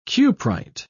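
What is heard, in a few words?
"cuprite", British English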